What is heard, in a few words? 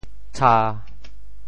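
"tsha1", Teochew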